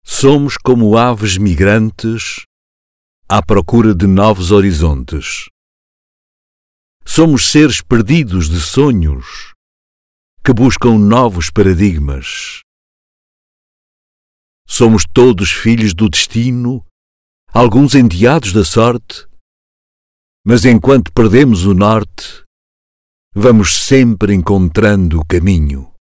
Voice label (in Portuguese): poema sem musica